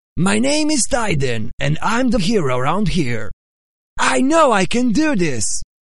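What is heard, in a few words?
English, male, character; voice over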